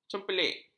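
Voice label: "cempelik", Malay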